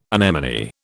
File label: "anemone", British